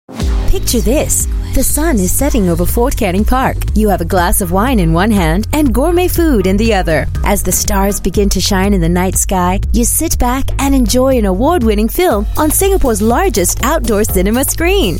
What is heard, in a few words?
Asian English; FILMS AT THE FORT